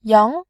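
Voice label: "yang", Mandarin Chinese, tone 2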